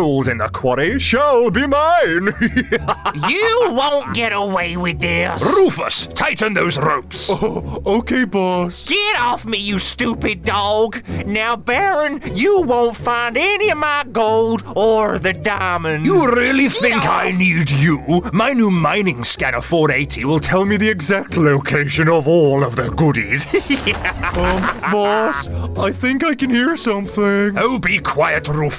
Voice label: Animation